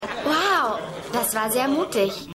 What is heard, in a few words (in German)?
Maedchen; Stimmproben